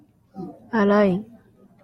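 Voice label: "alien", Japanese, female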